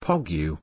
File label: "pogu" pronunciation